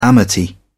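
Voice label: "amity", English, British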